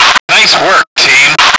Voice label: "work", male; lmctf